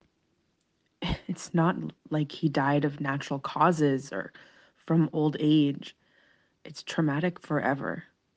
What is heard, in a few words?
testimonial